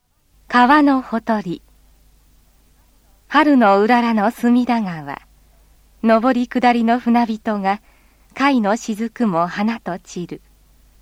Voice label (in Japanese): roudoku